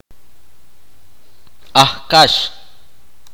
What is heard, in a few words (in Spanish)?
pollo, itza